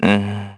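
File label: Vox Think, Korean; heroes; Evan; kingsraid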